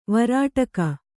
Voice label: "ವೇರಡಿಗ", Kannada

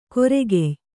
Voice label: "ಕೊರೆಗೆಯ್", Kannada

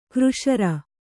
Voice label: "ಕೃಸರಿ", Kannada

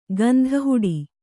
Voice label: "ಗಂಧಹುಡಿ", Kannada

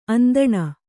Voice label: "ಅಂದಣ", Kannada

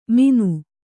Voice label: "ಮೀನು", Kannada

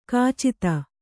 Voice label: "ಖಚಿತ", kannada

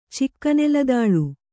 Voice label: "ಚಿಕ್ಕನೆಲದಾಳು", Kannada

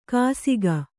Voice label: "ಕಾಸಿಗ", kannada

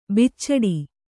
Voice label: "ಬುಚಡೆ", Kannada

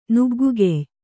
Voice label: "ನುಗ್ಗುಗೆಯ್", Kannada

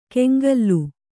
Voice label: "ಕೆಂಗಲ್ಲು", Kannada

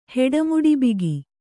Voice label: "ಹೆಡಮುಡಿಬಿಗಿ", Kannada

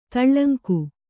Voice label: "ತೇಲುಂಗ", Kannada

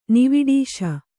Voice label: "ನಿವಿಡೀಶ", Kannada

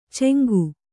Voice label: "ಚೆಂಗು", Kannada; ceŋgu; noun

